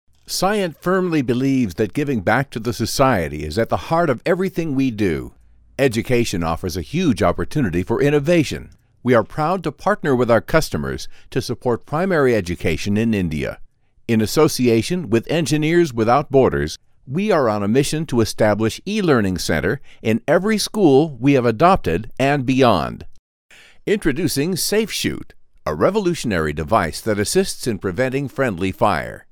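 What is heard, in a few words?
American, male; lektoring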